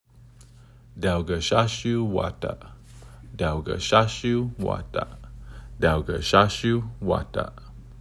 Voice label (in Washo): Dawgashashiw wata, Washoe Language; (clear creek, also called Taylor Creek)